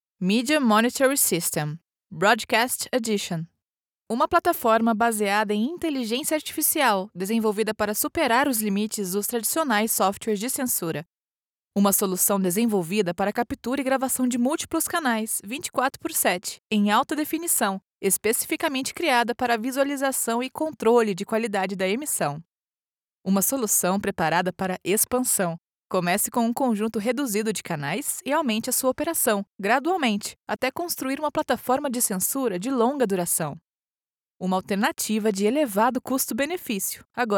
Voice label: case studies script sample voice interaction female brazilian portuguese; voiceover